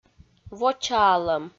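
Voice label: "вочаалӧм", Komi, female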